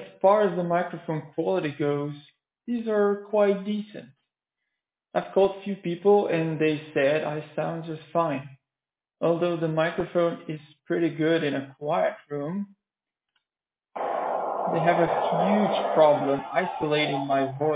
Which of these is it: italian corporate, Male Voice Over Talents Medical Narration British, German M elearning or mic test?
mic test